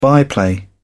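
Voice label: "byplay", English, British English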